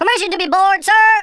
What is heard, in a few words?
boring, Drill Sergeant; Worms Armageddon